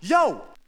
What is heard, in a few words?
"yo", spank easy